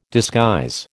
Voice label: "disguise", American

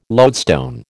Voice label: "lodestone", American

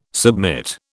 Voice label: "submit", British

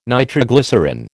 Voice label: "nitroglycerine", American

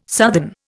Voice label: "southern", Indian

